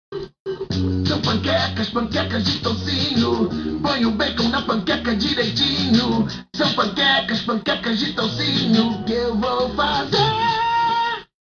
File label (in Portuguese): dublado br; panqueca de toucinho